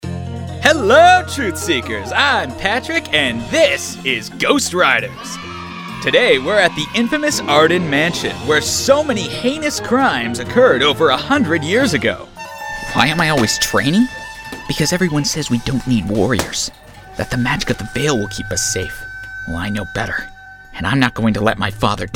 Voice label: Animation

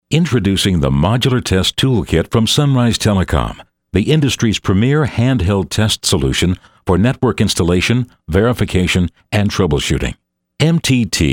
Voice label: E Learning

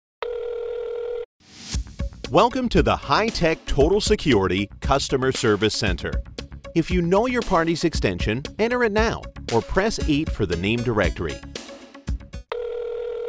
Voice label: Phone Holds

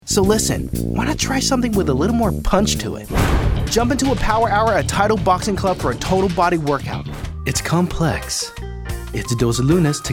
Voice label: COMMERCIAL

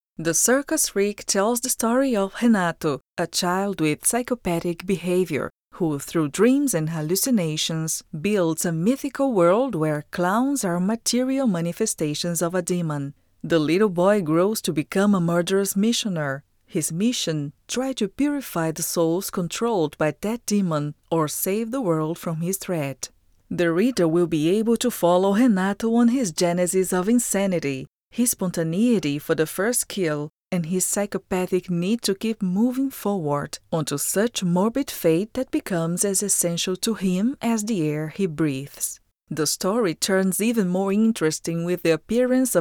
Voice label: English Narration; PT BR

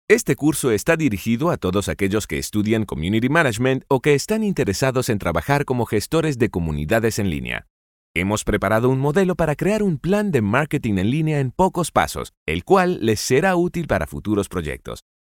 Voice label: eLearning IT and Marketing Example

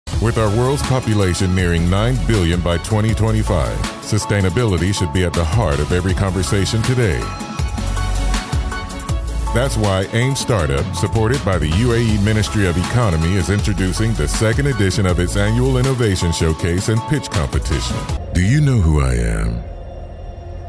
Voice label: Narrative

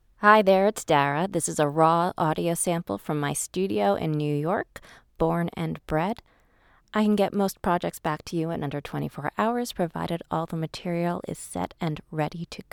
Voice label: Studio Sample; VoiceRealm

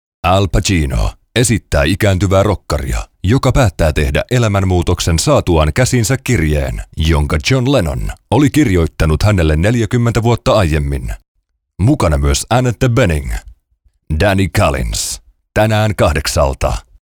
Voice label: trailer

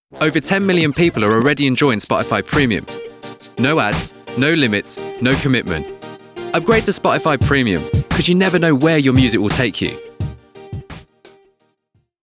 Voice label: ad

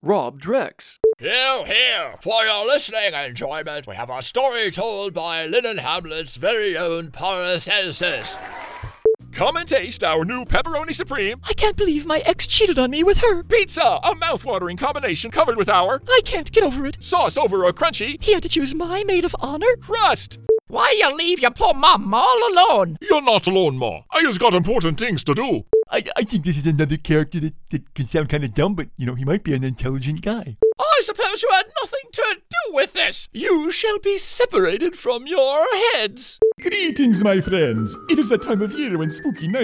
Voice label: MULTICHARACTER VOICE

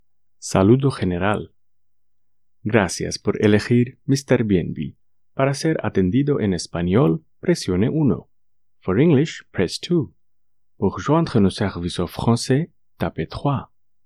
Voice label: Spanish IVR

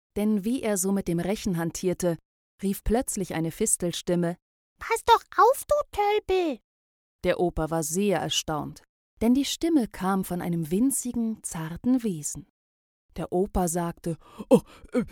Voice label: audiobook children German

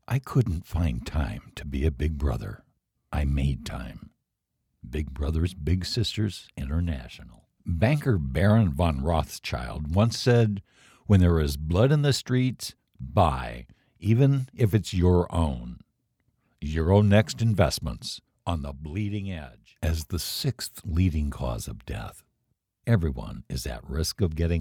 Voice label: PSA

